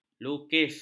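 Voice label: "lukis", Malay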